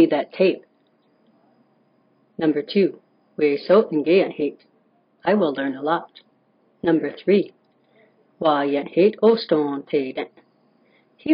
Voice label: Conversational Quiz Audio